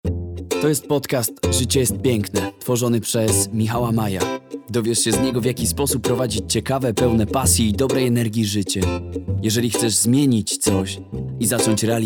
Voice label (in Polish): intro mlody zrelaksowany glos